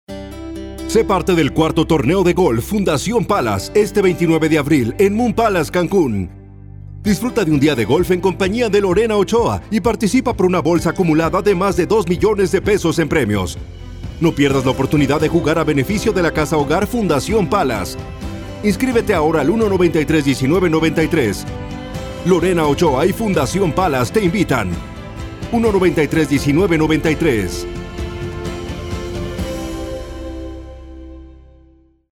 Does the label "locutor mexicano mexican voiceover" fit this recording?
Yes